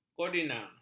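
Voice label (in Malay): "kodeina", Malay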